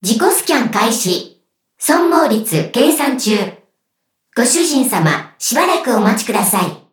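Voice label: victory, Japanese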